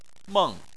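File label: "meng", Chinese, fourth tone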